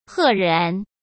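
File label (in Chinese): "赫然", Mandarin Chinese; chinese flashcards; hsk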